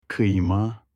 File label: ground meat in turkish